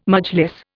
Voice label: "Majlis", Indian

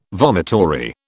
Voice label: "vomitory", British

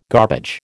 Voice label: "garbage", American

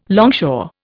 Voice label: "longshore", Indian

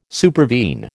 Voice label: "supervene", American

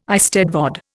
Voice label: "eisteddfod", Indian

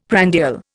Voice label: "prandial", Indian; Dictionary

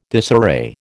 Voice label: "disarray", American

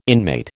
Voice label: "inmate", American

